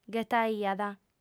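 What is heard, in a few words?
"ghetaiat", Sardinian, female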